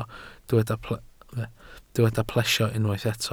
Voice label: "plesio", welsh

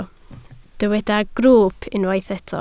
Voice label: "grwwp", Welsh

